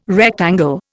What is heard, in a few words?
"rectangle", Indian